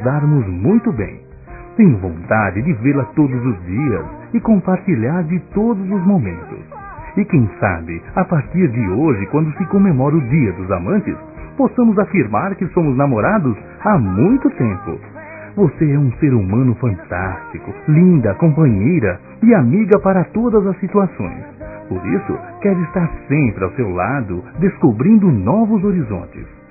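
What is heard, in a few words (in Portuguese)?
AMIZADE COLORIDA, Masculino; Telemensagens; Dia Dos namorados; Telemensagens 2017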